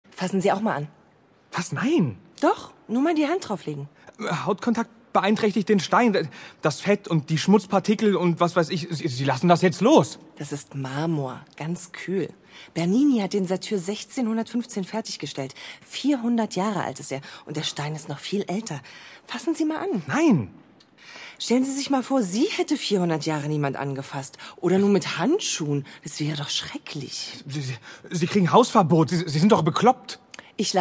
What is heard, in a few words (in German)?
Hoerspiel; Im Museum